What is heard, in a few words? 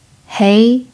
"hei2", pinyin